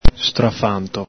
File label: "strafanto", Veneto